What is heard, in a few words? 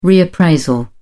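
"reappraisal", British English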